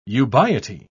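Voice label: "ubiety", British English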